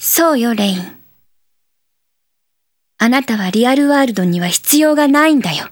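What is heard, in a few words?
no longer needed, Japanese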